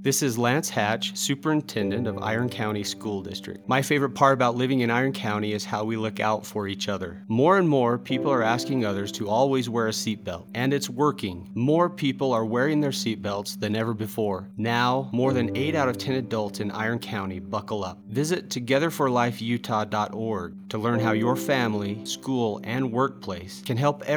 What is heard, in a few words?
Radio Ad